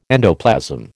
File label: "endoplasm", American